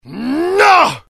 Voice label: anger, dialogue IT; mohaa; attack

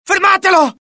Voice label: alert, dialogue IT; mohaa; attack

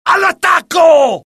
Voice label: alert, dialogue IT; mohaa; attack

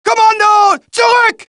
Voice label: German; mohaa

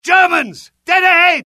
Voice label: alert, UK; mohaa; dialogue

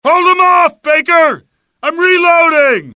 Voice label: reload, US; dialogue; mohaa

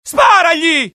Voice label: alert, dialogue IT; mohaa; attack

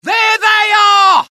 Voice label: alert, dialogue UK; mohaa

